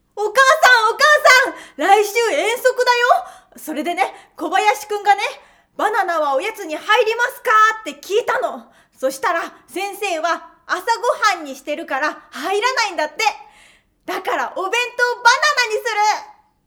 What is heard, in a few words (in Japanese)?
セリフ 男の子